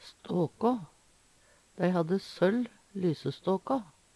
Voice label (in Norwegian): ståkå, Numedalsmål